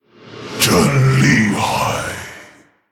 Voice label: Male Orc, Impressive.; Wow; ▶真厉害！; 死亡猎手雷克萨; 惊叹